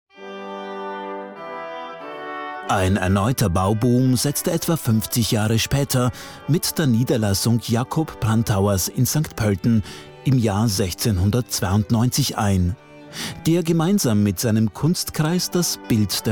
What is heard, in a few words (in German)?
doku